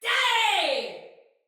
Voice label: Attack Normal Soldier Female; Battle; Barks; Dialog; Sinecure Soldier Female B